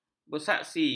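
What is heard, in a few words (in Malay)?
"bersaksi", Malay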